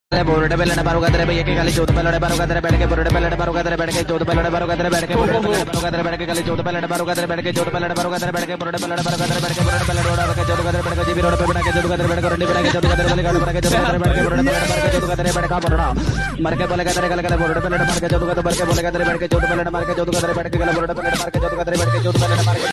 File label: non stop gali in hindi